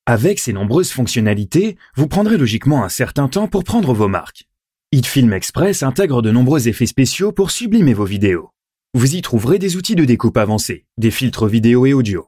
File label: french male; dictor; dictor-sounds